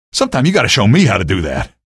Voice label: notice grav gun kill, male; video games; npc; source engine; episodic; episode 1

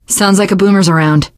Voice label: heard boomer, teengirl; voice; source engine; left 4 dead 2; survivor

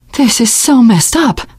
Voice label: reaction negative, teen girl; survivor voice

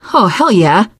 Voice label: nice job, teengirl; source engine; left 4 dead 2; survivor

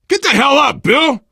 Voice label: revive friend loud, player survivor voice biker

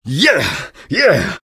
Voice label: taunt cong fun; engy; tf; taunts

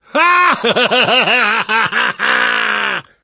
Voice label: taunt, survivor voice

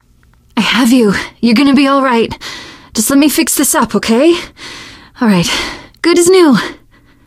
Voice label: revive friend, teengirl; voice; source engine; left 4 dead 2; survivor

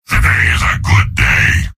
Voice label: soldier cheers; source engine; tf; mvm

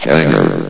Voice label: "hangar", vox; Source Engine; bshift

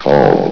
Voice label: "called", vox; Source Engine; bshift

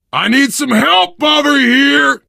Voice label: help, player survivor voice biker; source engine; left 4 dead 2

